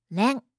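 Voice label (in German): "ren", japanische Mädchennamen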